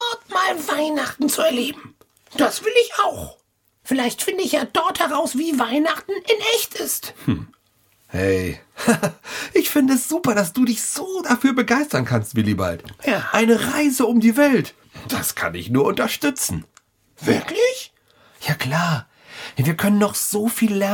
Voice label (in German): Hoerspiel